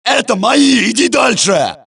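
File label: suppress, dialogue, Allies; mohaa; Mission 3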